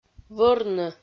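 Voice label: "вӧрны", Komi, female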